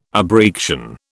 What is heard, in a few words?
"abreaction", British